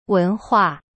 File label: "文化" wen hua, chinese